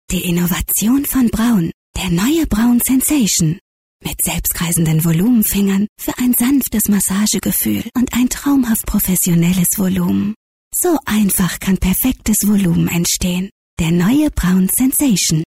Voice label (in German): Werbung, Dynamisch